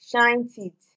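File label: "shine teeth", Nigerian Pidgin, female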